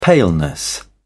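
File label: "paleness", British English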